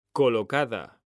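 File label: "COLOCADA", Spanish, male; mekanta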